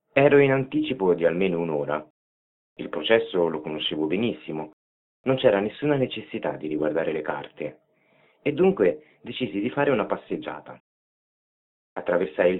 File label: story